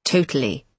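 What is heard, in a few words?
"totally", British English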